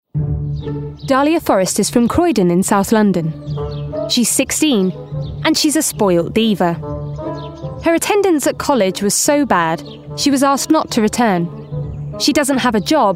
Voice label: DOCUMENTARY; Worlds Strictest Parents

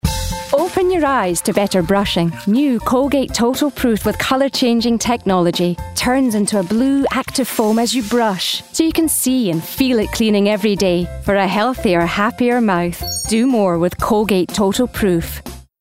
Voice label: COMMERCIAL; Colgate

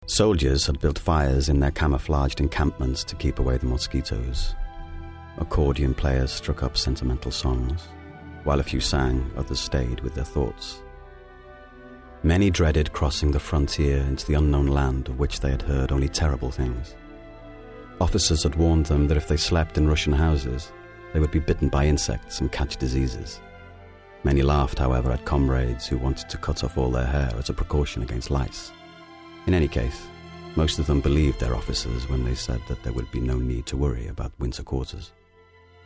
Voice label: DEEP INFORMATIVE LOW KEY SENSITIVE; RUSSIAN WAR

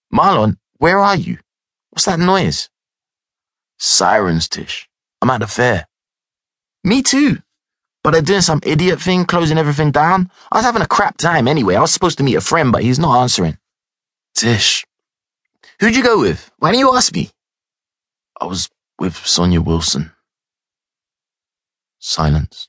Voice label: Audiobook; Orangeboy

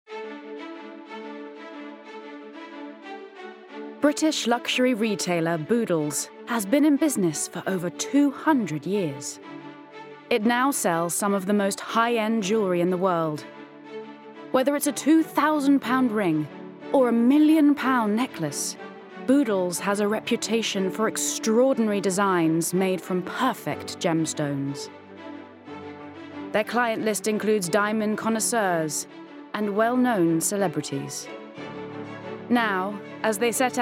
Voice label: Narration

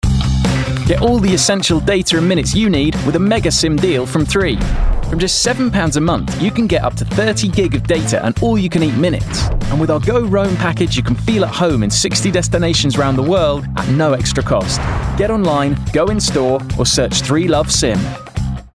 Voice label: Commercial Mobile; Three Mobile

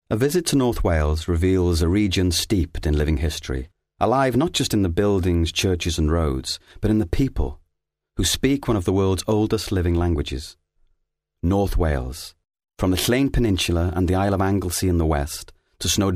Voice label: Narrative, STRAIGHT NARRATIVE; NORTH WALES

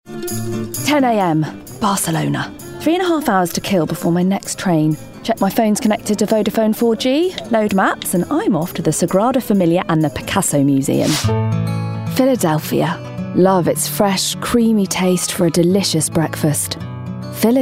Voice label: Commercial Voice reel

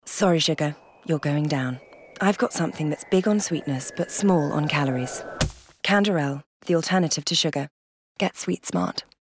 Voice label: radio; Canderel; Sweetsmart

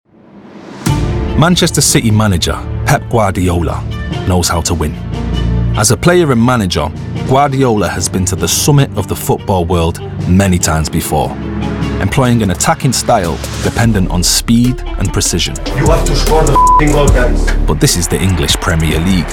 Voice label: Narration; Manchester City

